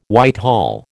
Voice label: "Whitehall", American